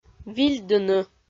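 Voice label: "вильдыны", Komi, female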